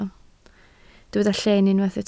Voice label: "lleen", Welsh